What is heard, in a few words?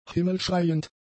"himmelschreiend", German; adjectives